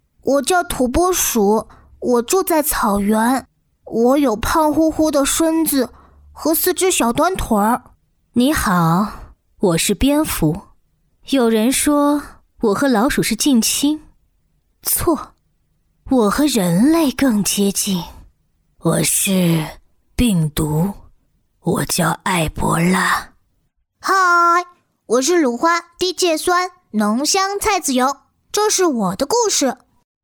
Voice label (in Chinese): 拟人角色演绎